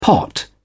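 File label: "pot", British English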